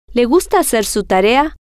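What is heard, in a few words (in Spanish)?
le Gusta Hacer Su Tarea, spanish, female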